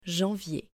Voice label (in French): "Janvier", French